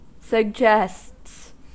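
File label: "suggests", American English; pronunciations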